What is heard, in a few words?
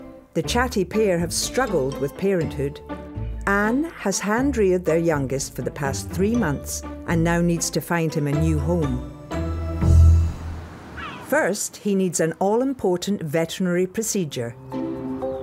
documentary; HIGHLAND VET